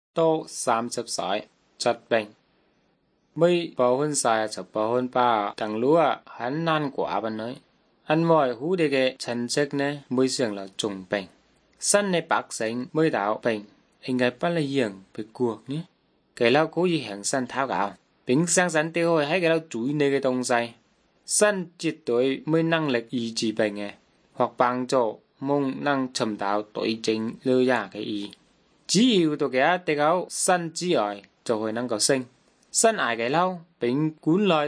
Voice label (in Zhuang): good news zhuang nanning fushu